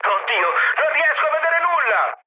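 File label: Italian searchlite mood med, speech; War Thunder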